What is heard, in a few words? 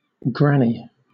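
"granny", British English; pronunciations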